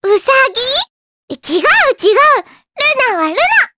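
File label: vox dia Japanese; Luna; kingsraid; jp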